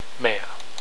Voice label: "mere", french; la/une mère